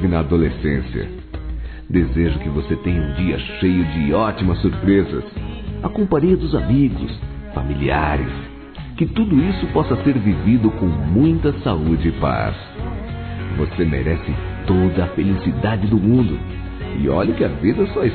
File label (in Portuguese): Aniversário Adolescente Masculino; Aniversários; ANIV. DE ADOLESCENTE; Telemensagens